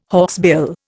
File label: "hawksbill", Indian